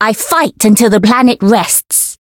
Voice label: Voice variable chant; nagi

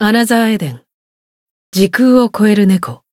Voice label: title jp

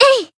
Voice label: attack, jp; saki

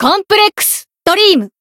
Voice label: Voice title jp; kid

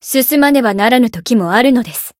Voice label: win, jp

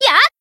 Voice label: attack, jp; pom

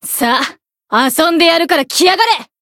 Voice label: Voice encount, jp; kid

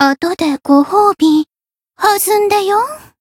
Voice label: variable chant jp; hoozuki

